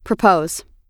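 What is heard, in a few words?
"propose", American English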